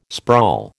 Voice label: "sprawl", American